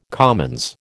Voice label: "commons", American